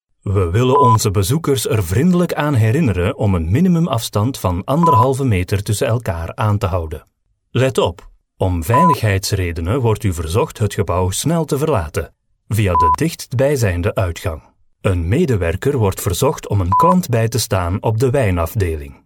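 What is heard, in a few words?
Flemish, Messaging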